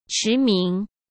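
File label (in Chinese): "驰名", Mandarin Chinese; chinese-flashcards; hsk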